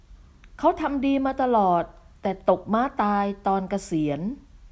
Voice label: fail, slang, Thai